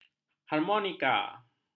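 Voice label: "harmonika", Malay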